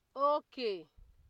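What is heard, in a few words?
"okay", Nigerian Pidgin, female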